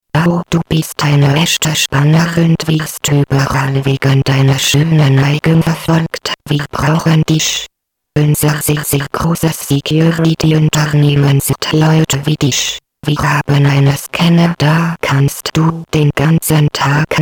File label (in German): Werbung; Nacked security